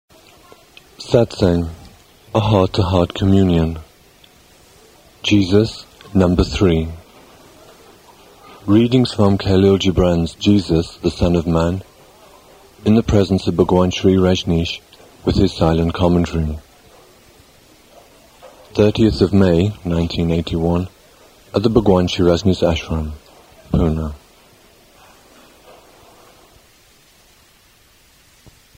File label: Announcement